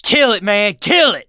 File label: battle cry tank, mechanic, survivor, voice